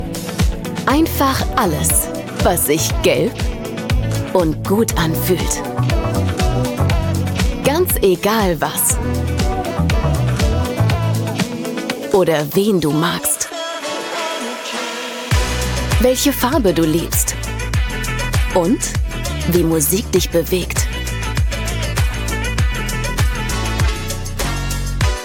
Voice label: Commercial, Sound Design Imagefilm, Brand Voice, German; Yello